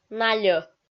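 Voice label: "нальӧ", Komi, female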